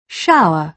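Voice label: "shower", British English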